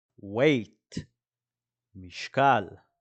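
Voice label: "weight" English, "משקל" Hebrew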